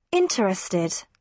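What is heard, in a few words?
"interested", British English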